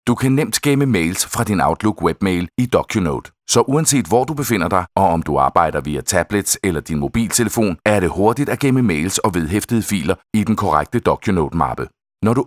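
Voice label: e-learning, Danish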